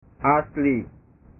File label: "asli", bahasa